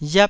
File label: "riap1", taiwanese